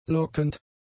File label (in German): "lockend", German; adjectives